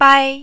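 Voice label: "bai" tone 1, Mandarin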